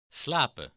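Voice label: "slapen", Dutch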